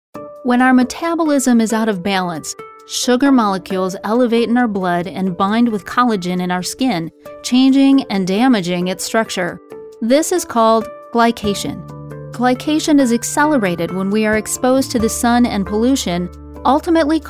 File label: narration